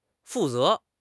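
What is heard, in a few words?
"fu4 ze2", Mandarin Chinese; HSK4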